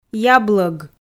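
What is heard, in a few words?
"яблог", Komi, female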